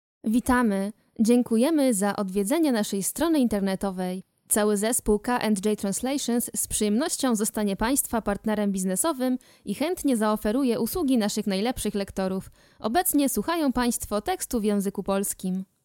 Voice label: polish female